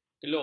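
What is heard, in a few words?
"keluk", Malay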